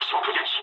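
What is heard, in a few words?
bomb success mood low, speech japanese; War Thunder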